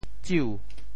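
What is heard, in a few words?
"tsiu2", Teochew; 酒; jiǔ; 潮州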